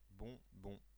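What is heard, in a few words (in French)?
"bonbon", French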